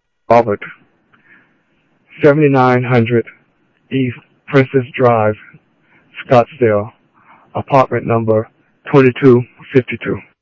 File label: Sheriff Central, scanner audio trunk